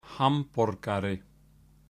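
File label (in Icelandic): "hamborgari", Icelandic; matarhefti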